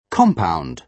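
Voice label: "compound", British English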